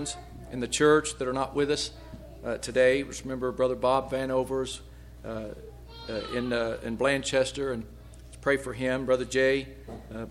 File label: Broadcast Prayer